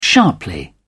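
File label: "sharply", British English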